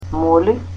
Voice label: "pencil", Macedonian